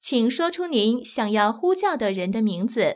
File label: spell name, zh-cn sounds ivr; BigBlueButton; freeswitch